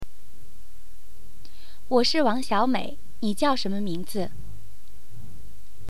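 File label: Putonghua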